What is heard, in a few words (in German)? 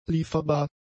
"lieferbar", German; adjectives